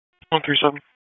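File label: Police District Dispatch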